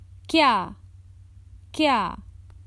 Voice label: Common Hindi Phrases for travel What